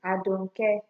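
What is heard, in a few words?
"adoncare", Nigerian Pidgin, female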